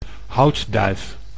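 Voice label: "houtduif", Dutch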